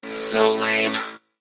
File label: mech die vo; edgar